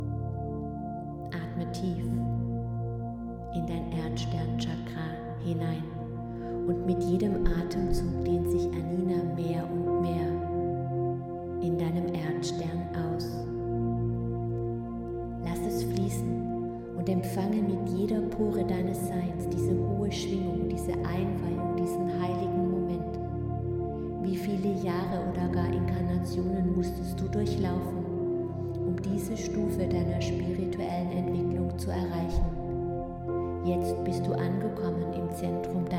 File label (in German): Meditation mit Musik